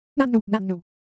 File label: Japanese Color Visual, Ideo Phones